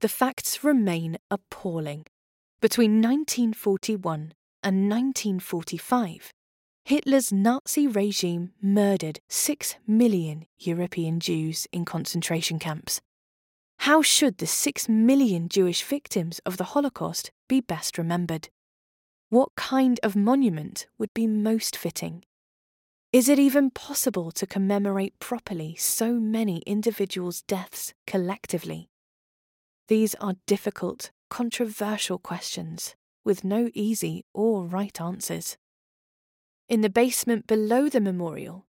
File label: english british audio guides